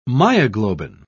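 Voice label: "myoglobin", British English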